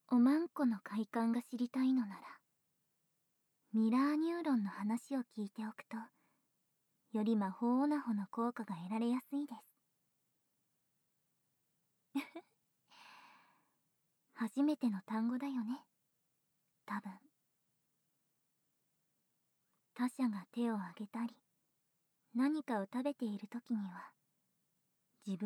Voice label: 催眠誘導と深化, ASMR

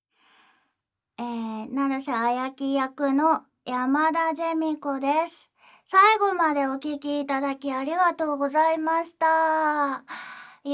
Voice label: フリートーク

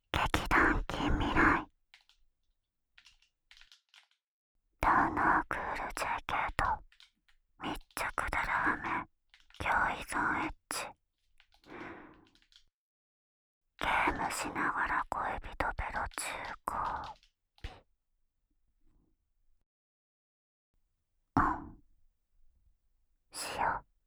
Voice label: タイトルコール

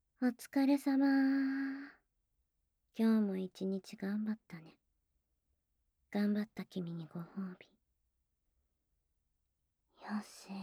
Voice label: おやすみパート, アラーム音声

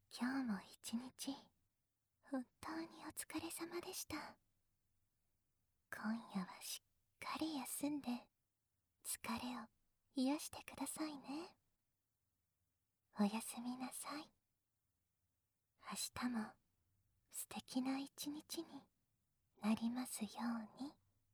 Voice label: おやすみボイス; おはよう・おやすみボイス; Wake-Up and Bedtime Voice

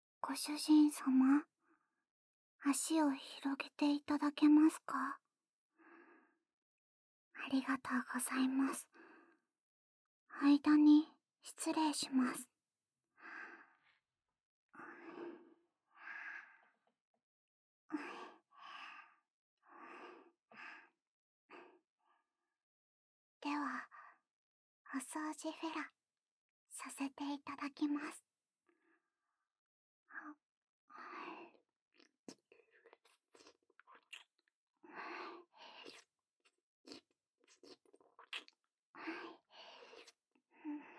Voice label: まったりフェラチオご奉仕 SEなし

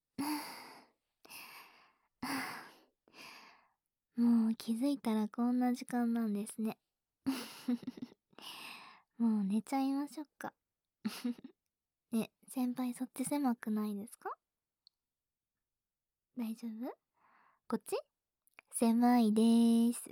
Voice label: まどろみピロートーク, ASMR

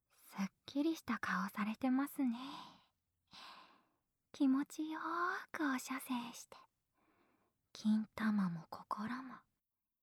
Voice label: 効果音なし, ASMR

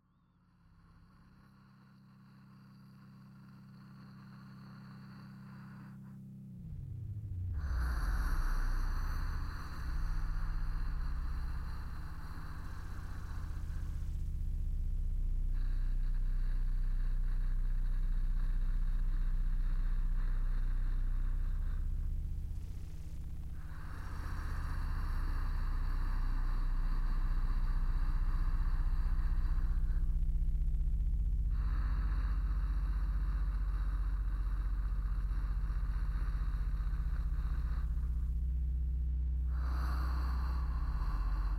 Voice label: 逆腹式呼吸 催眠誘導; 心にしみ込んでいく、深い安心; キーワード設定

